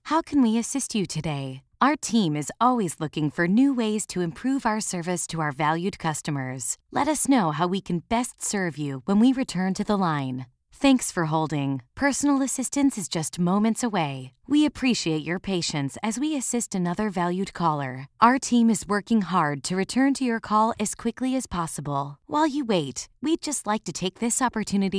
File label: Phone System